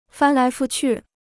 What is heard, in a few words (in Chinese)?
"翻来覆去", Chinese